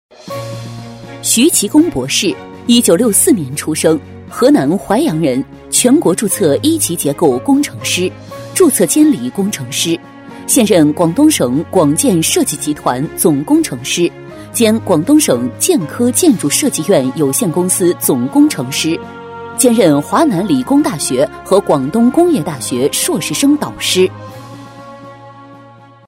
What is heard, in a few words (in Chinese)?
女 人物介绍 沉稳 大气; 徐其功博士